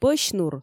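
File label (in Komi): пӧсь нур, Komi, female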